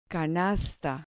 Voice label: "canasta", Spanish